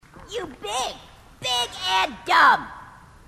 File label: grunt; h2dialogue; grunt crazy